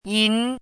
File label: "yín", hanzi